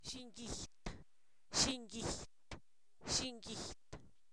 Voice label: Zapoteco, san miguel albarradas; semilla; pepita; INALI

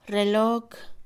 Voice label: reloj, Mixe, Mujer; INALI

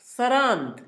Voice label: criba, Zapoteco, Asunción Ocotlan; INALI; Haspelmath

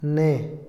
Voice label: "y", Otomí, hombre; INALI

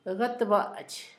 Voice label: tamal, Zapoteco, Asunción Ocotlan; Alimento; INALI; Haspelmath

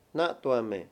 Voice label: "demandante", Mayo

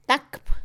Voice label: tejer, Mixe, Mujer; Acciones; INALI

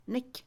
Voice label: papel, Mixe, Mujer; Habla; INALI

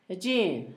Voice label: miel, Zapoteco, Asunción Ocotlan; Alimento; INALI; Haspelmath

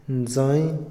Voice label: "costumbre", Otomí, hombre; INALI

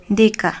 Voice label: Plátano, Mixteco

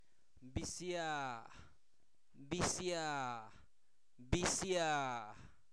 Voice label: gavilán, Zapoteco, santo domingo albarradas; animales; INALI

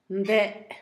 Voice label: aquí, Zapoteco, Asunción Ocotlan; Adverbios; INALI; Haspelmath

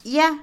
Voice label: Otomí, mujer; podrido; INALI

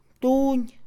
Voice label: ciruelo, Mixe, Mujer; INALI

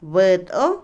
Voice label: liendre, Otomí, mujer; Animales; INALI

